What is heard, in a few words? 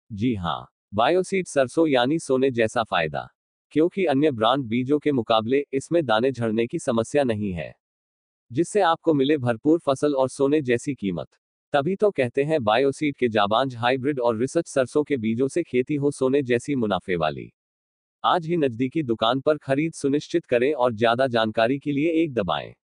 Male; Bioseed